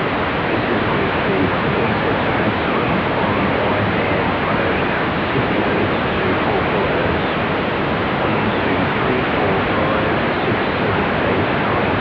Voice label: Maximum Clipping 6dB SNR